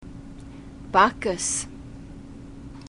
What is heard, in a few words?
"bacchus", glossary